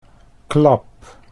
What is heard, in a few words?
"klap", Dutch